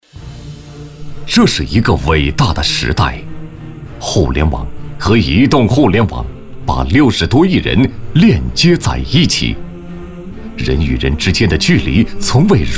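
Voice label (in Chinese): 男 专题（激昂）; 企业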